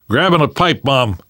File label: take pipe bomb, namvet, survivor voice; Left 4 Dead 2; left4dead2 dlc3